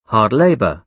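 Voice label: "hard labour", British English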